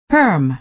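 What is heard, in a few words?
"perm", American English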